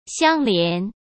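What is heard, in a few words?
"相连", Mandarin Chinese; chinese-flashcards; hsk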